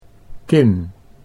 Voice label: "kin", Dutch